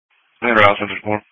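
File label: Emergency Medical Services Dispatch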